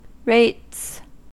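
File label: "rates", US English; pronunciations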